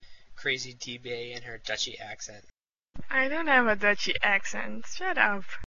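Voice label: True Dutchie Accent, voice clips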